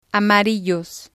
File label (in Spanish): "amarillos", spanish